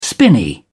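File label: "spinney", British English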